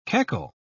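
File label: "keckle", British English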